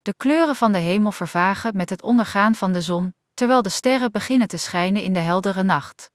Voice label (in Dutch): nl-NL klaar; iOS 26 Sounds